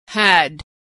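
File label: "had", American English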